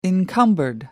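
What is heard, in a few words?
"encumbered", British English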